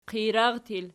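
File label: "qijrar" plural, Archi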